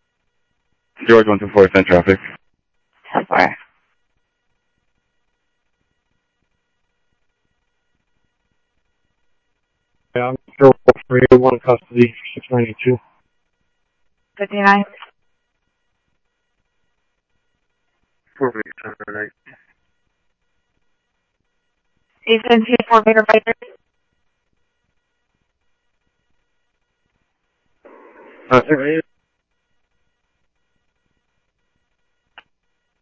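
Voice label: Sheriff East County, scanner audio trunk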